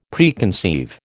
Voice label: "Preconceive", American

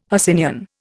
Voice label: "Hercynian", Indian

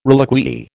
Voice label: "reliquiae", American

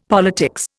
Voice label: "politics", Indian; Dictionary

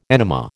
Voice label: "enema", American

